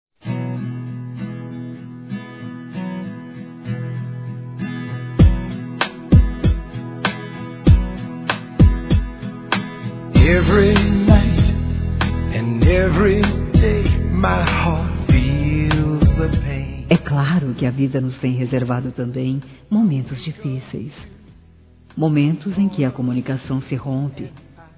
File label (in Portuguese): Dia dos Namorados Esposa Feminino, Telemensagens; Telemensagens 2017